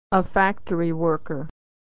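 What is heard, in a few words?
"worker", American English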